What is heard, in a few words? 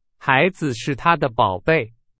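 Chinese; hsk4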